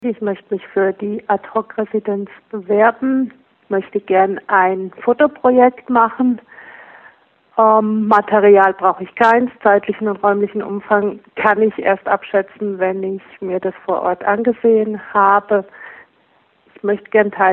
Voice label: Voicemail